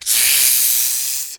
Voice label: jeers; Demoman